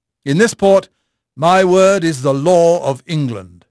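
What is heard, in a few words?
English male; Pirates Of The Caribbean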